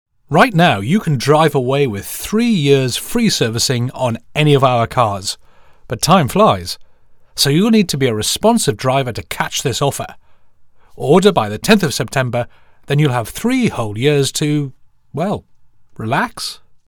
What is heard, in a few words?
Commercial